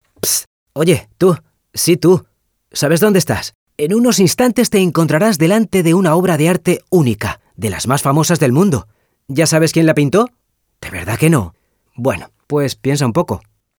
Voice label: spanish animation

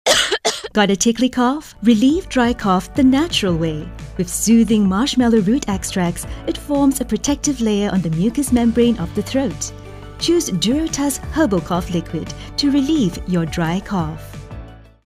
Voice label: english singapore promos

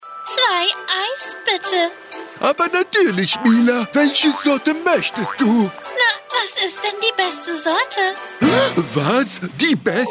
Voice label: german animation

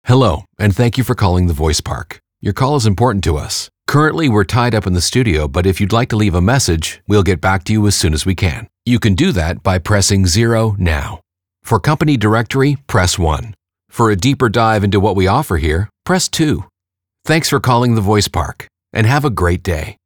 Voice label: english canadian ivr

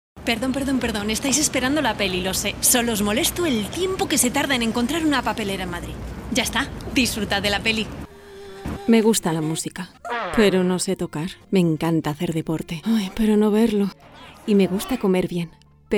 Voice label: spanish commercial

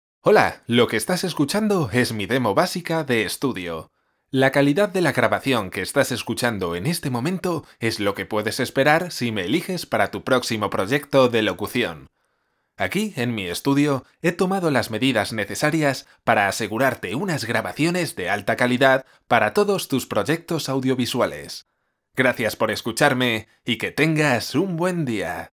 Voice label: spanish narration

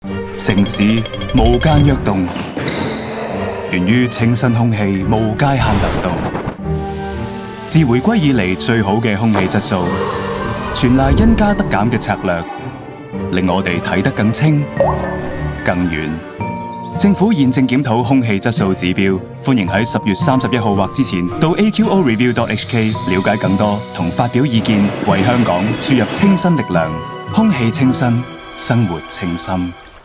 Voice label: chinese cantonese commercial; voice actor

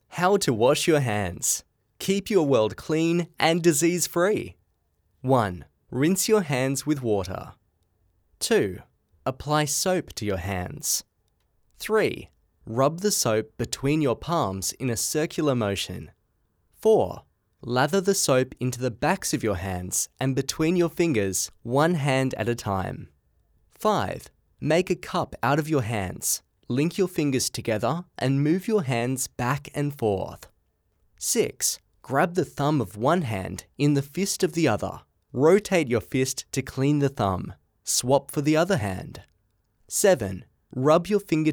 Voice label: english australian e-learning

